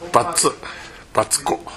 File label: "battu", minamiyamagata